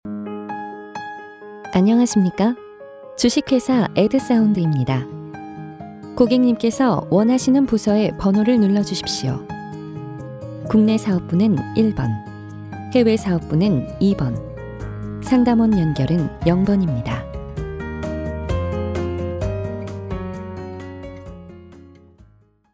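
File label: Korean female; ARS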